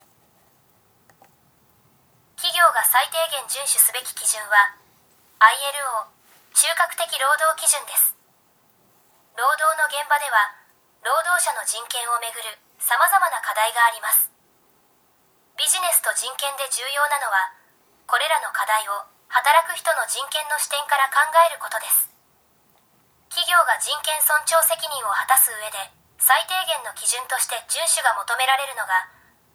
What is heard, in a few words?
elearning; japan; business and human rights